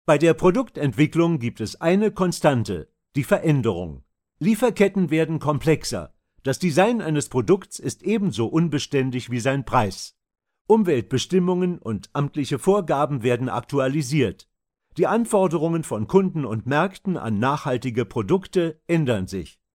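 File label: German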